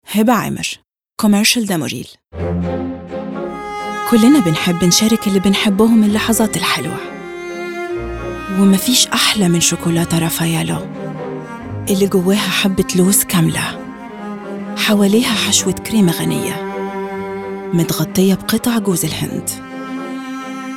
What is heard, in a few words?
commercial Egyptian Arabic